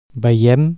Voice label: we see, Catalan

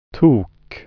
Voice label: "fool", swedish

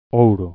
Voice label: gold, galician

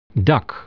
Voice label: "roof", dutch

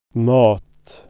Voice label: "food", swedish

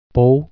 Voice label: well, Catalan

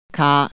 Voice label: truck, cantonese

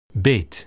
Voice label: bite, dutch

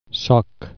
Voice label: log, Catalan

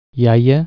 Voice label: "grandma", catalan

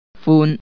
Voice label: cheerful, cantonese

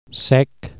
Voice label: "dry", catalan

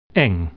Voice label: "narrow", dutch

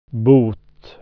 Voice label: "penance", swedish

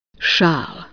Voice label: scarf, croatian

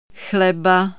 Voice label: "bread", czech

